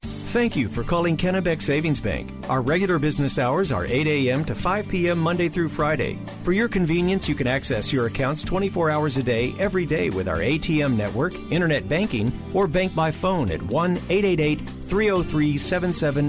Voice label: On Hold